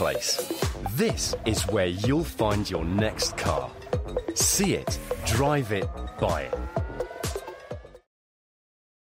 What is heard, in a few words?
british male voice over commercial; demo reel